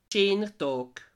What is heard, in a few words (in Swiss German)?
"dag", alsace